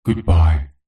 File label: science fiction computer voice says goodbye; zapsplat